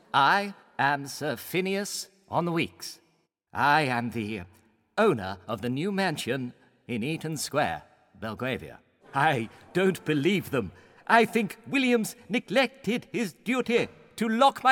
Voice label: male english multiple accents animation video game compilation; Devine Voices